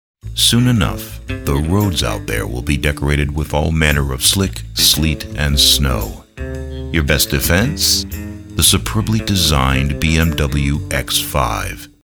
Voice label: voiceovers; BMW

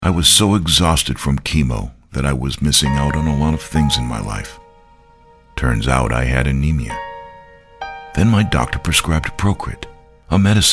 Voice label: voiceovers; Procrite

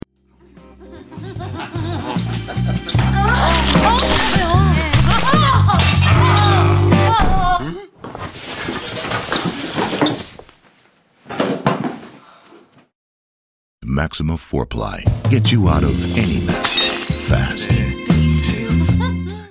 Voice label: Paper Towels TV, voiceovers; Maxima; Australia

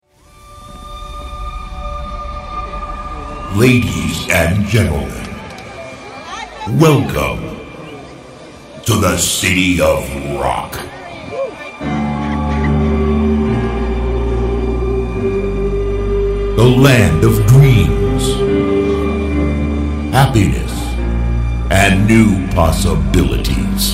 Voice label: Live Concert, voiceovers; Rock in Rio

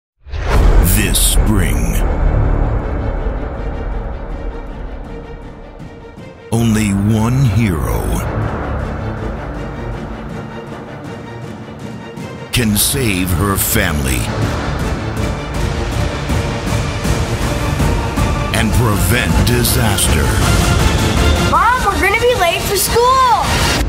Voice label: voiceovers; Epic Mothers Day

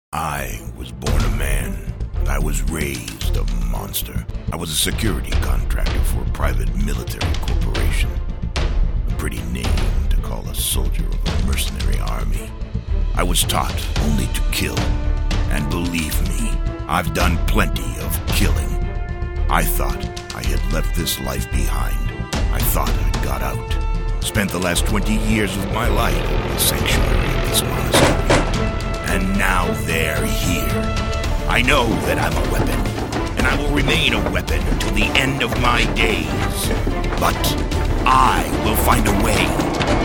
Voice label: Video Game Trailer; Redeemer

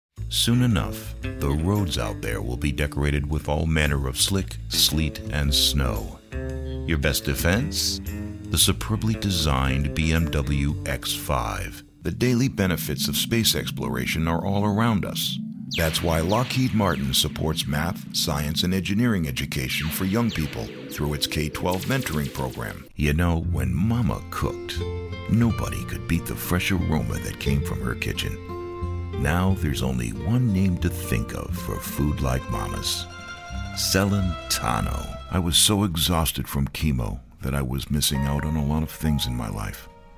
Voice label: Commercial, voiceovers

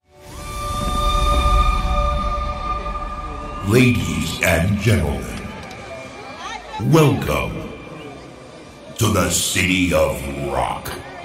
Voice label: Live Show Intros, voiceovers

